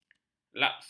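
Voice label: "laksa", Malay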